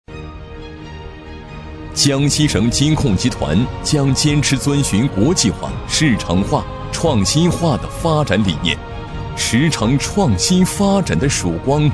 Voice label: 男 专题; 江西金控集团

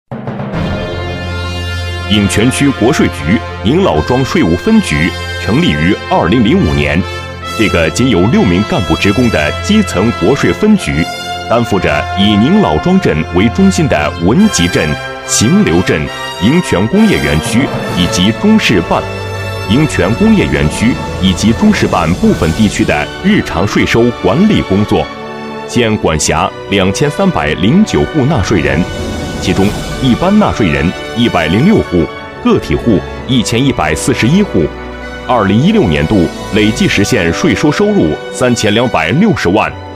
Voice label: 男 专题 国税分局

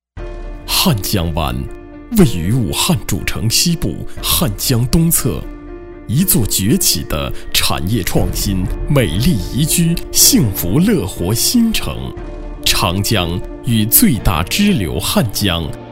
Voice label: 男 专题; 汉江湾demo

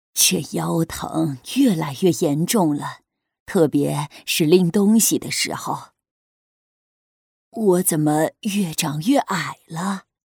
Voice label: 女 模仿 老奶奶

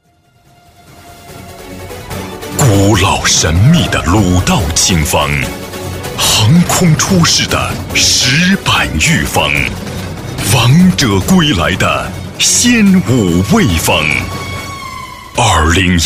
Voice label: 男 广告 品牌文化节

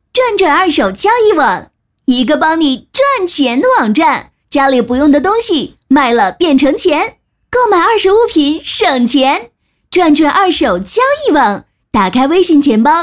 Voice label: 女 广告; 转转广告迪丽热马版(央5世界杯前后播出)

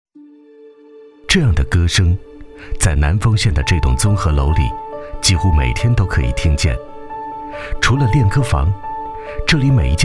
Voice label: 男 专题 温情 质感; 永不退休的党委

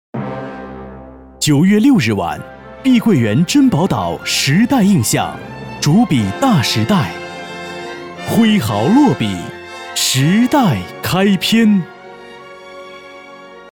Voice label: 男 专题 大气

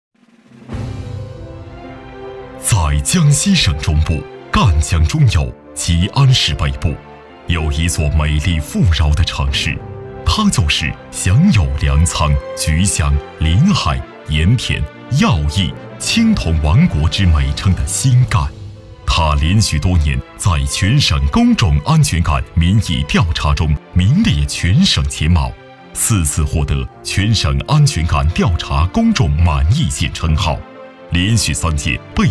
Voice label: 男 专题; 新干安全县建设

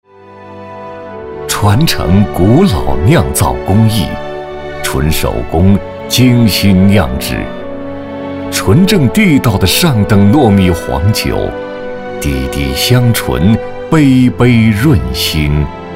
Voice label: 男 广告; 酒类广告

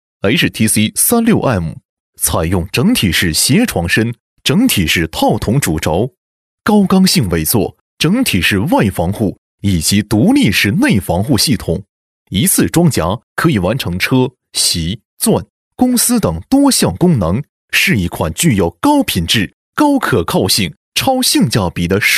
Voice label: 男 项目介绍; 乘用车; 项目简介乘用车

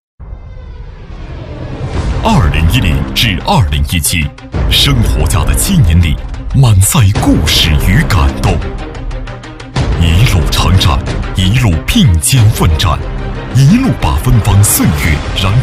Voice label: 男 专题; 生活家专题

